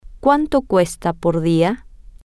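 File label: how much does it cost per day, spanish, female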